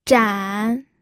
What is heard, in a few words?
"zhan3", pinyin